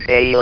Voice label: "HAIL", votrax; VIDEO GAMES